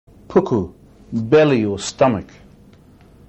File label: "puku", maori